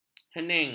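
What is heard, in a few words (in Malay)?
"hening", Malay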